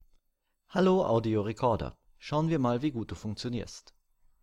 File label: USB Mic In Cleaned